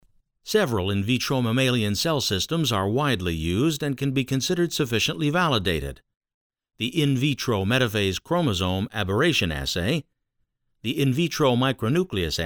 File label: Medical Narration Genotoxicity Protocols